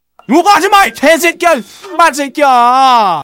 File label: scout negative vocalization